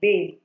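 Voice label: "bale", Nigerian Pidgin, female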